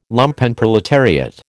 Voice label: "Lumpenproletariat", American